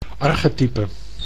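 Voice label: "archetype", Dutch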